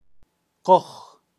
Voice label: "koj" puma, kaqchikel, pronunciacion de animales

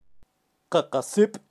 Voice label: "qaqasip", kaqchikel, pronunciacion de animales

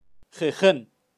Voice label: "jejen", kaqchikel, pronunciacion de animales

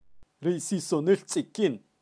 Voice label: "rusisonil tzikin", kaqchikel, pronunciacion de animales

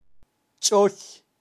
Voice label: "choy" raton, kaqchikel, pronunciacion de animales

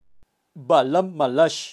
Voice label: "balam malax" mariposas, kaqchikel, pronunciacion de animales

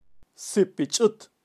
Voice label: "sipichut", kaqchikel, pronunciacion de animales

